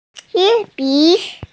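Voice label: "qian1bi3", Mandarin Chinese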